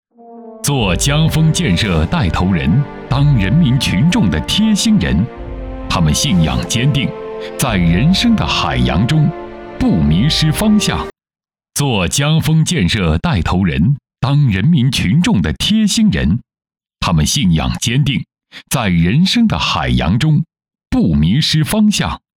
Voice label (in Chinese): 男 专题; 家风